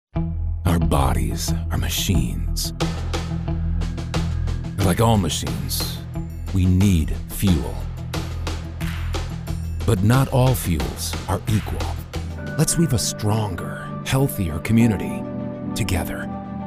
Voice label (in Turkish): Erkek, İngilizce (Amerikan), Kurumsal, Reklam; Eğitim